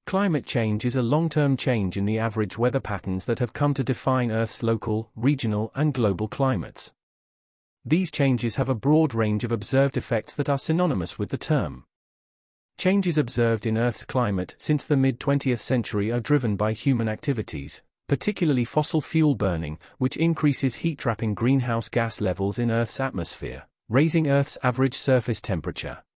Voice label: UK